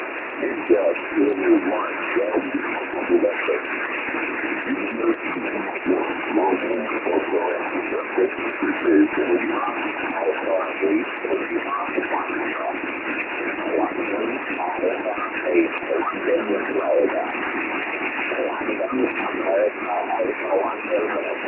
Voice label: ssb, 1296 EME Recordings